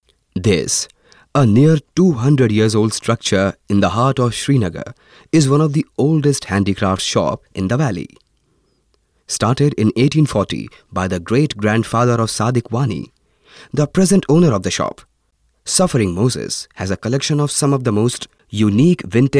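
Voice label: English male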